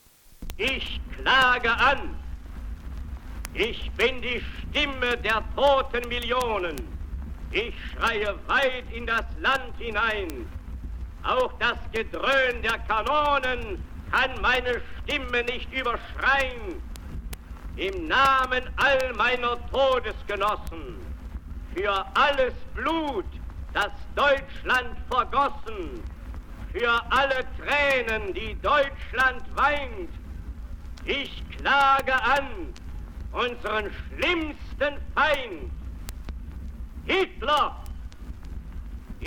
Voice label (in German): lautsprecherdurchsage